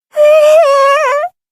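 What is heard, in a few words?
teary eyed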